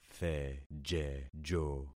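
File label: "thejejo", concatenated syllables; Affixing experiment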